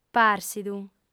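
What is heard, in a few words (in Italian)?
"pàrsidu", lingua sarda, fem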